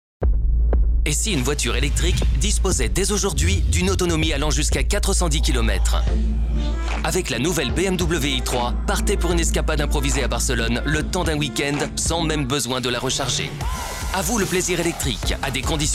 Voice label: French Voice Actor Spot Radio; BMW PELRAS